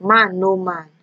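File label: "man know man", Nigerian Pidgin, female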